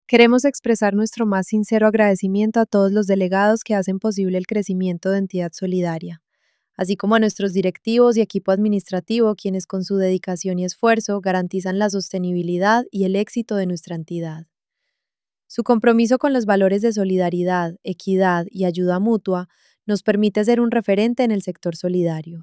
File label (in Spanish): femenino